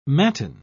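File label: "matin", British English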